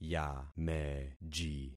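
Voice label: "yameji", concatenated syllables; Affixing experiment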